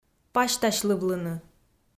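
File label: "пасьтасьлывлыны", Komi, female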